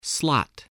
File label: "slot", voice spelling